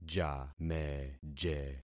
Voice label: "jameje", concat syllables; Affixing experiment